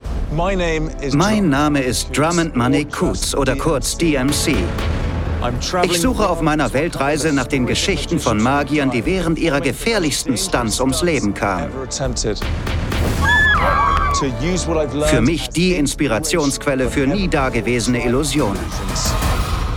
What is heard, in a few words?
Voiceover